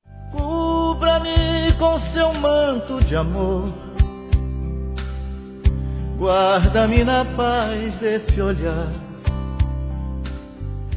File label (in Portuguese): Romântica religiosa m.